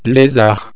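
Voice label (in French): "lezard", French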